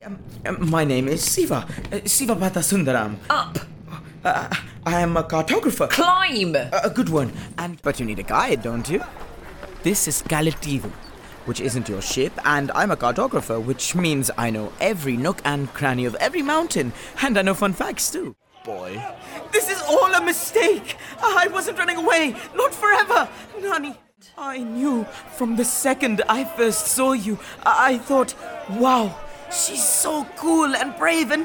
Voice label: Drama